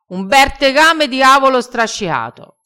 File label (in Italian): "strasciato", crespinese